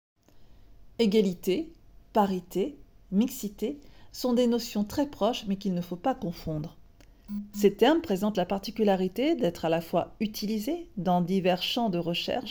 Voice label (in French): Voix Off; Voix off : Egalité, de quoi parle-t-on ?; La Mixité en Normandie